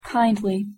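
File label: "kindly", English pronunciation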